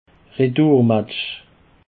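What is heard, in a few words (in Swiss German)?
"redurmatch", Alsatian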